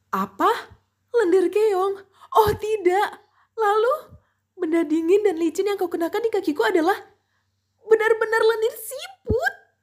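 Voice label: character Indonesian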